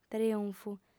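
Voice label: "trionfu", female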